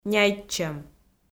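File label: "няйтчӧм", Komi, female; kpv audio dict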